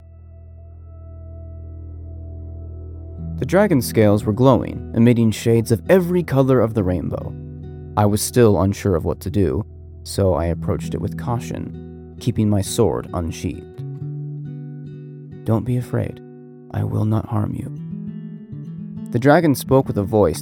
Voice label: Comforting Fantasy Audiobook Narration Speaking with a Dragon